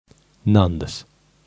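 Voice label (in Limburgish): "Nandes", kerkraads dialect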